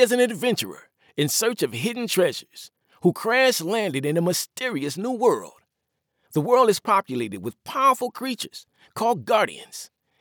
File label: Video Game Male